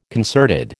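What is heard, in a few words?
"concerted", American English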